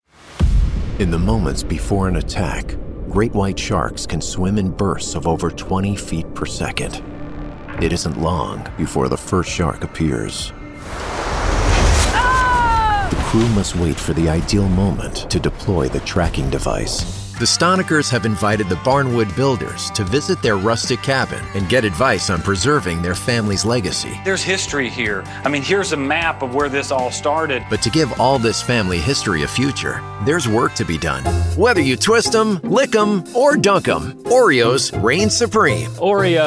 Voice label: narration men